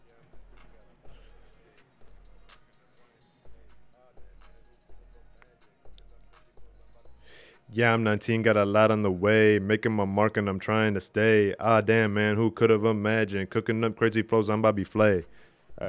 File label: recordin Mic Input; flstudio; Vocals